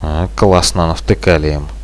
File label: oh yes, radio bot; Reallite